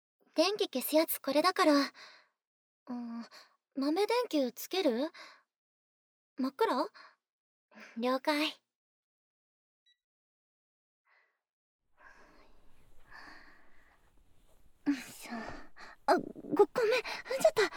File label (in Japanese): 寝かしつけ